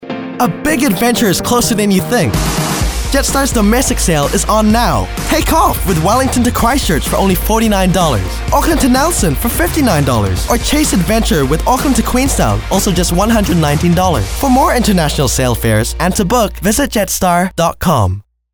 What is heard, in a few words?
promo